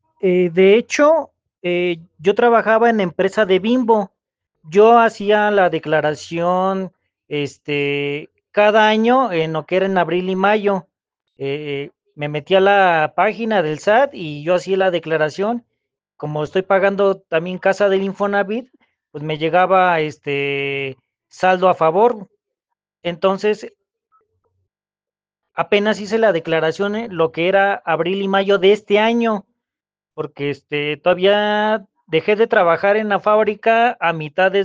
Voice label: whatsapp ptt